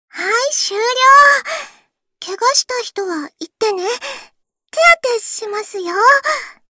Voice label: Voice victory